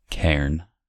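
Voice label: "cairn", US English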